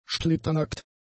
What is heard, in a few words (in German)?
"splitternackt", German; adjectives